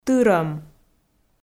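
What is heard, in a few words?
"тырӧм", Komi, female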